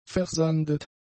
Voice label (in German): "versandet", german; adjectives